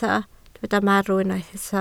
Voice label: "marw", Welsh